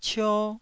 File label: "cio1", Taiwanese